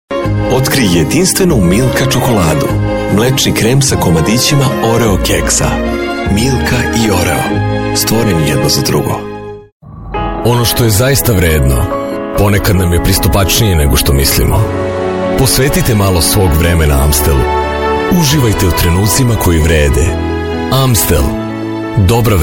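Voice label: Serbian male commercial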